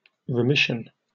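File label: "remission", British English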